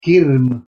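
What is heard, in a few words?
"kirm", Urdu, male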